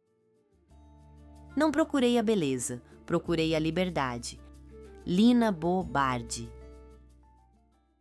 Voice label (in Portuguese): narracao; sobre liberdade